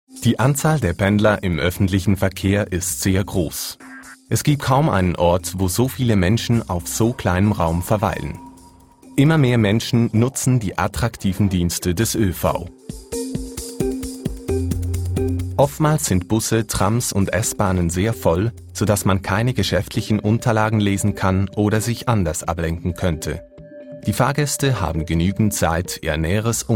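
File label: german swiss male commercial; voice demos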